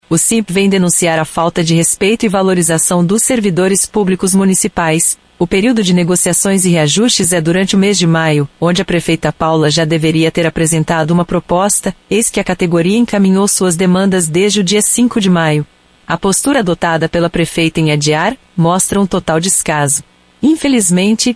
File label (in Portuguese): COMERCIAL